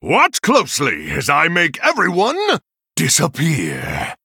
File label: Male Human Play